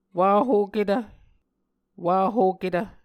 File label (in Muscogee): "wahoketv", Muscogee